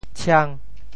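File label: "tshiang5", Teochew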